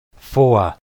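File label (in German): "vor", German syllable; klettres; tdeedu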